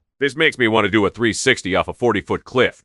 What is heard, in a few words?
happy, speech; fertig classic games